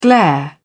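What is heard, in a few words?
"glare", British English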